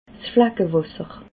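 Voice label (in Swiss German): "flackewasser", Alsatian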